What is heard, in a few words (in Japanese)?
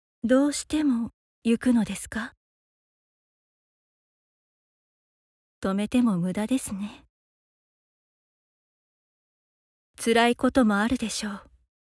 20代優しい女性